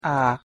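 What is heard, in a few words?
"nyitott a", Hungarian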